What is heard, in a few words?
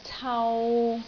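"cau3", Cantonese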